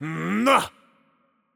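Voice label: voice over, Mexican Spanish; Change Player; bloom minigame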